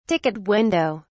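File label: "ticket window", British English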